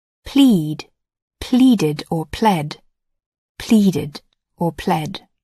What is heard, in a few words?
"plead", English